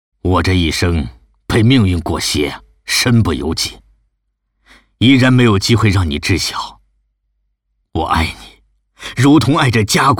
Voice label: 男 角色自述, 历史沧桑 激情力度

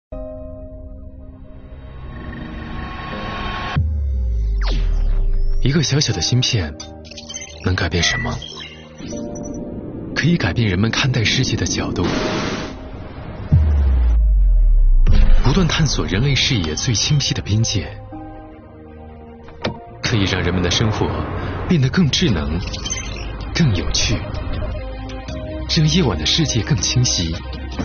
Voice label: 男, 科技宣传片, 年轻时尚; 时尚质感 年轻时尚

